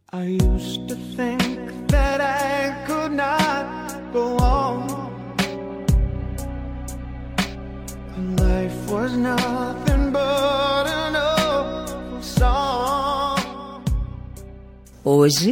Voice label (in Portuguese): paquera fem, Voz Feminina; dia dos namorados conquista voz feminina